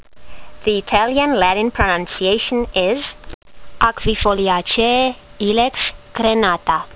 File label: latin; Ilex crenata